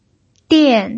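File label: "dian", Mandarin Chinese, fourth tone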